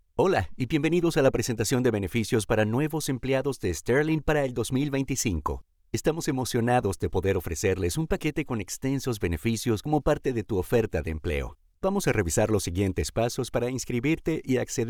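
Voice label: Latin American Spanish, eLearning; voice demos; GoLocalise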